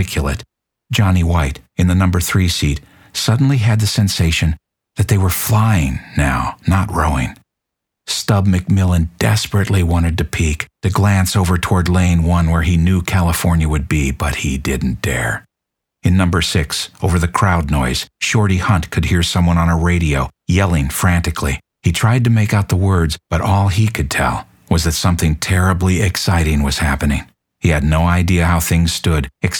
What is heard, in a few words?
Non Fiction Historial With Action